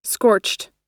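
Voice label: "scorched", American English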